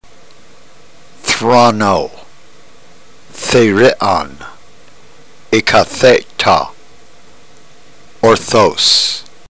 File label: drill, ntgreek